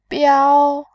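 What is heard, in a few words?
"biao", Mandarin Chinese, tone 1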